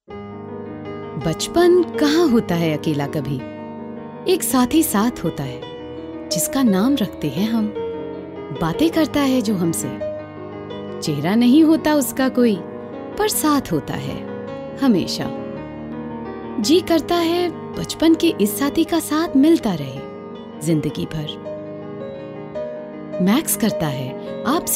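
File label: hindi narration, female voice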